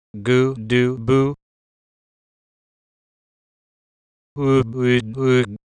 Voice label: Ambiguous Speech Sounds